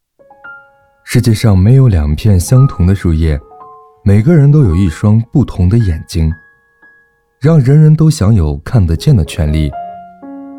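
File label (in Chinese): 大气感动医院广告; 大气感动眼科医院广告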